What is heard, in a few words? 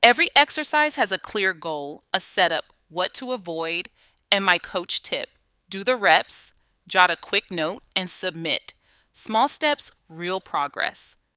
tour narration